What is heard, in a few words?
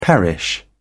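"perish", British English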